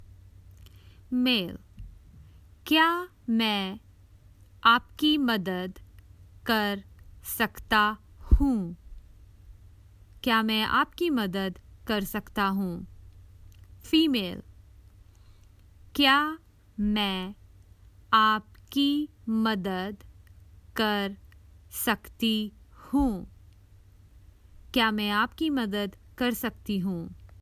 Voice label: Common Hindi Phrases for travel Can I help you

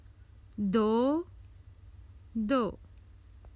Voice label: Common Hindi Phrases for travel Two

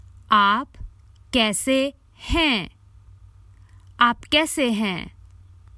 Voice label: Common Hindi Phrases for travel How are you

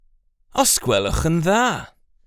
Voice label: Please formal

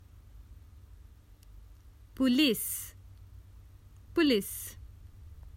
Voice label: Common Hindi Phrases for travel The police

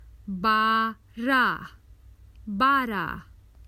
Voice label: Common Hindi Phrases for travel Twelve

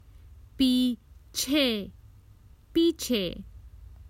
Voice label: Common Hindi Phrases for travel Behind

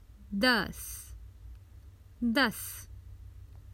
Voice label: Common Hindi Phrases for travel Ten

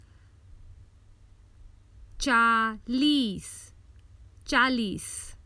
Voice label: Common Hindi Phrases for travel Forty

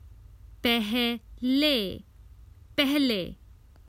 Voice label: Common Hindi Phrases for travel Before

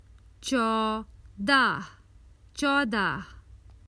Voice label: Common Hindi Phrases for travel Fourteen

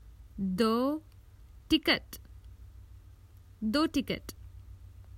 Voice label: Common Hindi Phrases for travel Two tickets

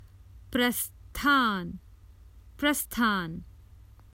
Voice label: Common Hindi Phrases for travel Departure

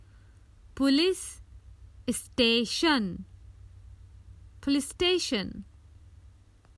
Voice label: Police station, Common Hindi Phrases for travel

